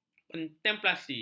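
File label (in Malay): "kontemplasi", Malay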